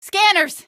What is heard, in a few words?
scanners, female; Source Engine; video game; npc